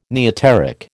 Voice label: "neoteric", American

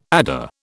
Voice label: "adder", British

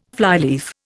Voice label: "flyleaf", Indian

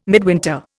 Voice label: "midwinter", Indian

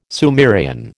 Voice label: "Sumerian", American

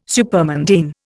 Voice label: "supermundane", Indian

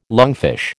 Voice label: "lungfish", American

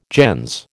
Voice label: "gens", American

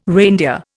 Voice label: "reindeer", Indian

